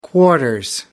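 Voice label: "quarters", British English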